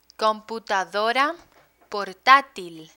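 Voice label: "computadora portatil", Spanish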